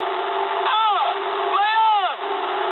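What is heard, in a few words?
damaged pilot mood medium, speech English; War Thunder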